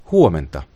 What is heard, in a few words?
good morning, finnish